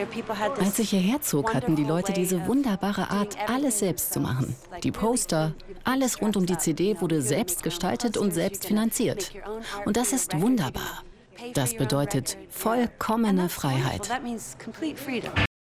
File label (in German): TV VOICE-OVER; SF; FlowerPower; arte